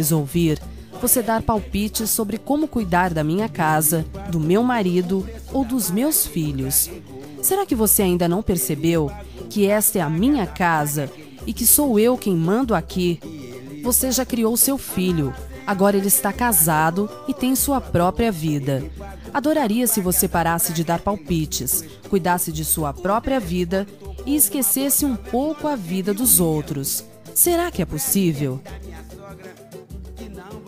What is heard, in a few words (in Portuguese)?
TELEMENSAGEM BRONCA NA SOGRA INTROMETIDA - VOZ FEMININA; duBroncas